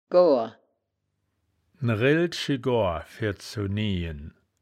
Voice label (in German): "goar", wittlich; das wittlicher woerterbuch; woerterbuch vertonung